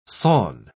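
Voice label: "sawn", English, British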